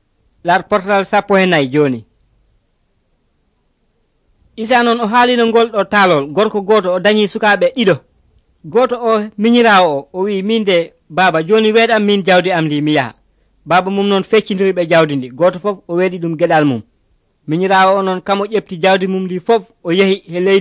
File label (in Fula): pulaar fulacunda, drama; senegal; pulaar bible society of senegal nt drama